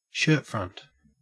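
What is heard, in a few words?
"shirtfront", Australian English; pronunciations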